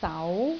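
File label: "sau2", Cantonese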